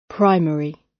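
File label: "primary", British; Study, education, research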